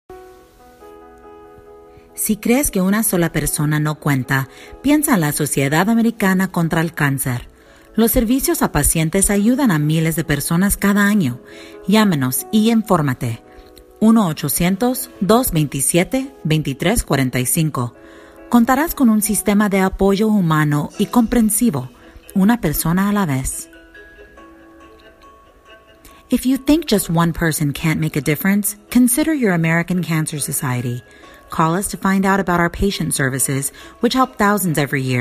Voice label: Spanish English Narration